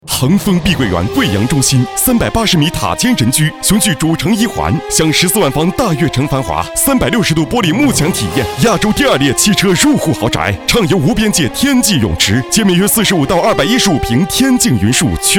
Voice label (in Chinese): 男 广告 激情大气; 碧桂园